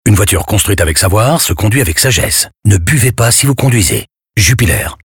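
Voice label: radio, french; radio 5s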